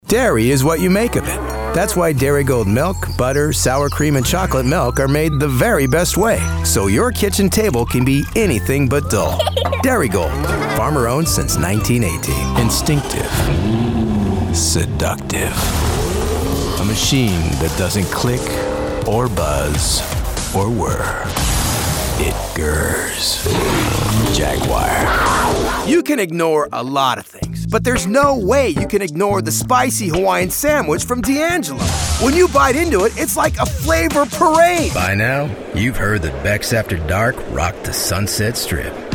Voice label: Commercial